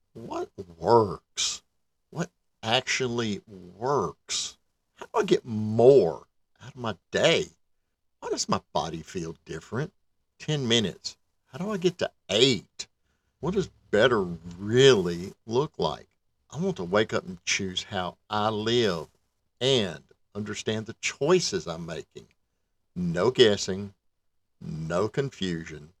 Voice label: Voice Over Casting - Supplement Brand Internal Video Voice Sample